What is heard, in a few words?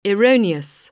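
"erroneous", English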